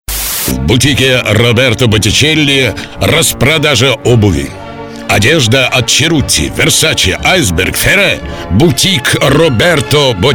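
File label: russian male commercial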